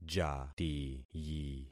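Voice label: "jatiyi", concatenated syllables; Affixing experiment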